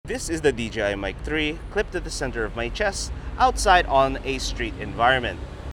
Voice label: DJI mic 3 street noisy